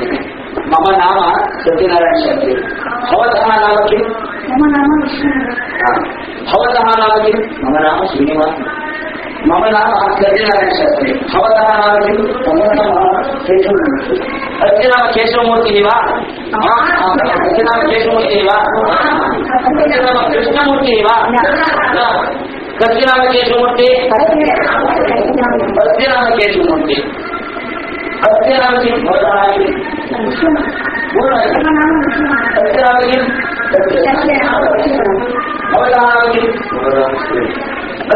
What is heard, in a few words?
Sample of a Conversational Sanskrit class